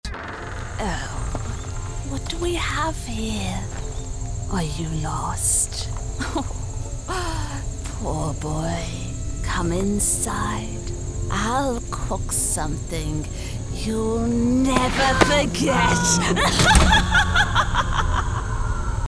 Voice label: Old Witch, elderly; en-GB